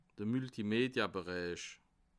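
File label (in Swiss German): "multimedia", Bas Rhin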